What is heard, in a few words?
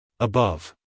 "above" US English, male; everyone-can-use-english